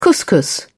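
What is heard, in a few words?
"couscous", British English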